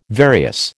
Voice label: "various", American